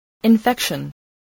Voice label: "infection", spelling voice